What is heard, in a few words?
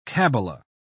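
"kabbala", British English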